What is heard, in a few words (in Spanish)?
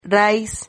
"rais", Silabas